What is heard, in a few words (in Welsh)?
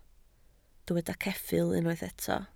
"ceffyl" Welsh